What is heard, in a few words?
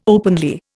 "openly", Indian